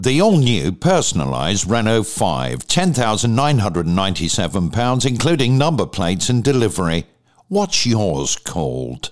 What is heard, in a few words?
Car TV Ad Tag